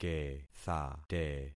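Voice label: "kethate", concatenated syllables; Affixing experiment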